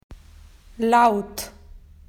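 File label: "loud", Australian English; PictureDictionary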